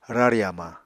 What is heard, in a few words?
"rari hamañ", Apatani